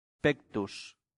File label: "pectus", latin; santillana